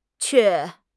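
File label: "que4", Mandarin Chinese; HSK4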